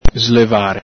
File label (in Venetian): "slevare", Veneto